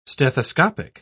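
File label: "stethoscopic", British English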